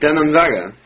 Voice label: thanks, estonian

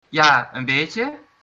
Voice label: yes a little, dutch; Ja, een beetje

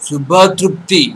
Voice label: bon appetit odia

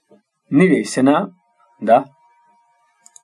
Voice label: my name is, basque; Nire izena ... da

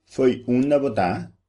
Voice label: "where from", Papiamento

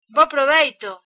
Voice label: bon appetit, galician

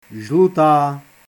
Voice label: yellow Czech

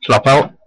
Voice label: good night, west flemish

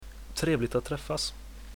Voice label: meet, swedish

